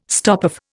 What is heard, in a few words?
"stopoff", Indian